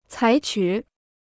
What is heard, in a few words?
"采取", Chinese